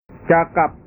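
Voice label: "cakap", Indonesian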